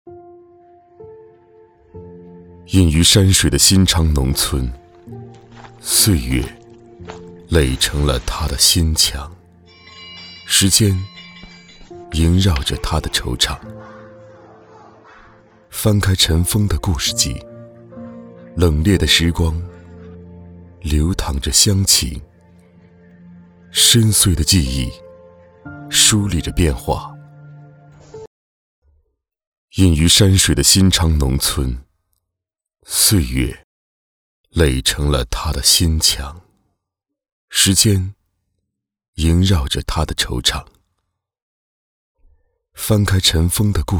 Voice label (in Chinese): 走心